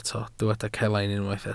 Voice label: "celain", Welsh